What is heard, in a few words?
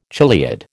"chiliad", American